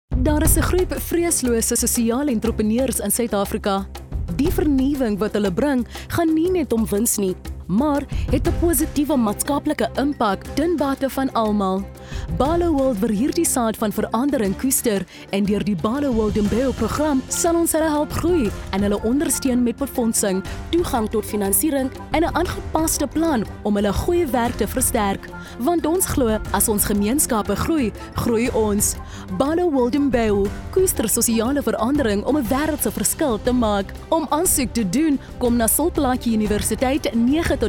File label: Afrikaans showcase